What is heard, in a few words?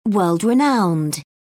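"world renowned", British English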